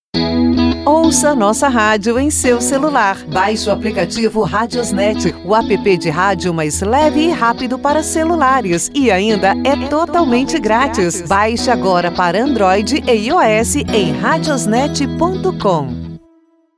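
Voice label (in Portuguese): Ouça a nossa radio em seu celular feminino; RadiosNet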